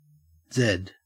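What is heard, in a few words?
"zed", Australian English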